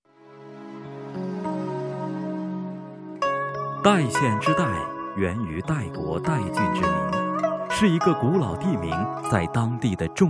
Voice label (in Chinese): 样音古典风